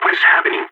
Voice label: scared emote, balkan; vo